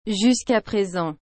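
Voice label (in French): "jusquà présent", French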